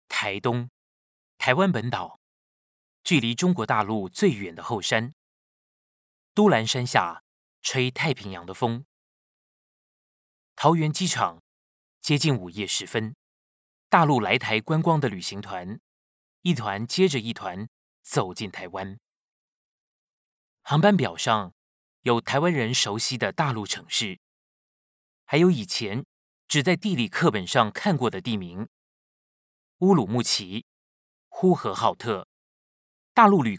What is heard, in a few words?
chinese mandarin documentaries